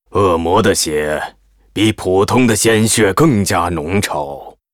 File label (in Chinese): Hero demon hunter pissed